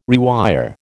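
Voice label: "rewire", American English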